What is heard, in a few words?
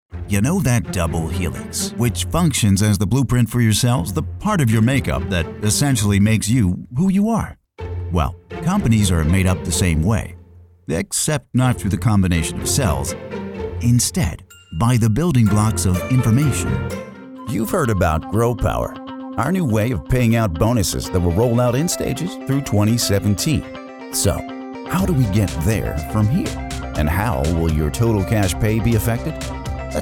Explainers